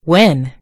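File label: "win", British English; gcompris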